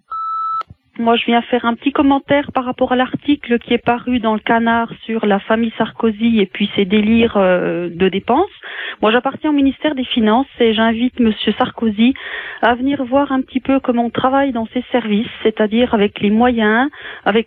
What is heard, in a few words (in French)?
repondeur; Sarkosy